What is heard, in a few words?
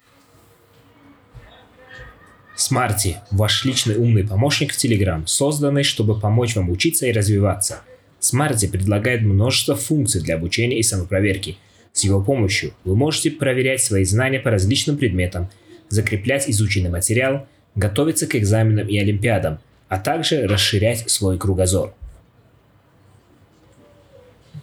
podcast voice; adobe audition